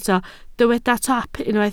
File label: "taap", Welsh